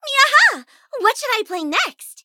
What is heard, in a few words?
eng menu idle; DJ Miya